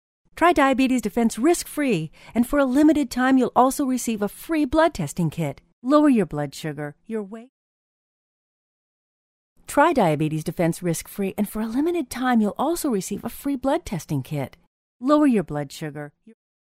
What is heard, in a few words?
hard soft sell